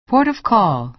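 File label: "port of call", American English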